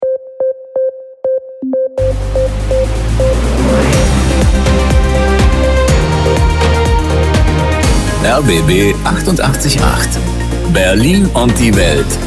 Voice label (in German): Nachrichten B mit SV